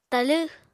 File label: "talẃ", Apatani